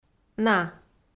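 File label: "на", Komi-Zyrian, female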